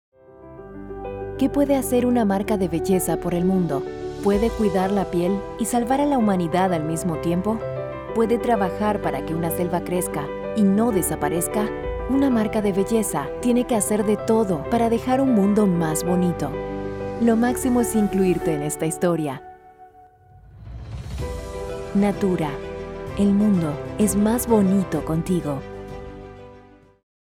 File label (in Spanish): SPOT inspiracional Neutro